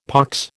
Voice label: "pox", American